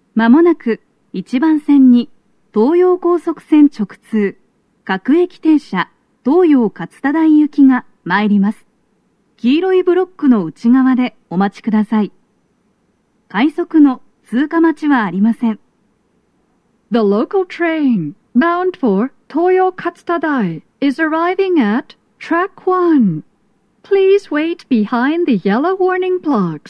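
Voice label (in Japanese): approach announcement, 接近放送 女声; 1番線 西船橋・東葉勝田台・津田沼方面; 放送は「各駅停車 東葉勝田台行」です。快速 の通過待ちはありません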